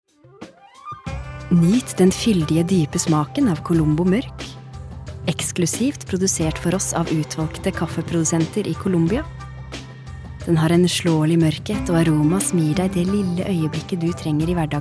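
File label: Norwegian soft sell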